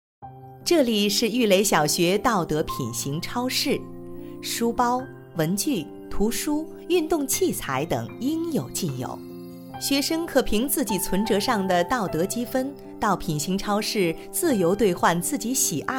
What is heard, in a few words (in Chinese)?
专题 学校 亲切, 女; 育蕾小学